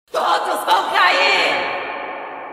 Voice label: echo